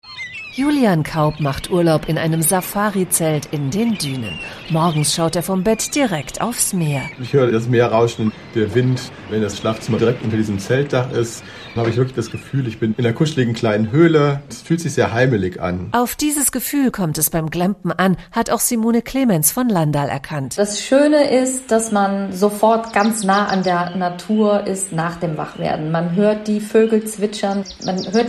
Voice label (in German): Radio Beitrag Glamping